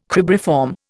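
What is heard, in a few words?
"cribriform", Indian English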